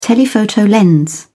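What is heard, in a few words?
"telephoto lens", British English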